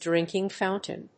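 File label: "drinking fountain", English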